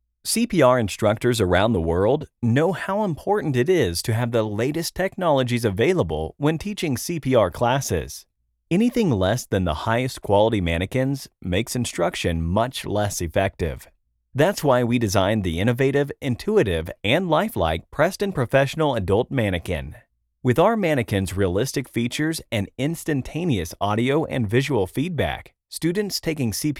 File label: Voiceover; Prestan; CPR Feedback